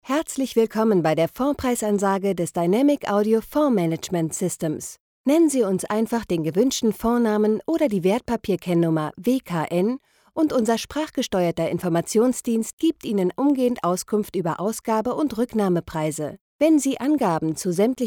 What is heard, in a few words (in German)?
telefon, deutsch frauen